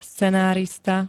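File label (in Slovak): "scenárista", Slovenské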